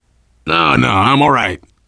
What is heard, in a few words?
getting revived, voice, biker; Left 4 Dead 2; survivor